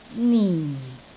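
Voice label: "nin4", Cantonese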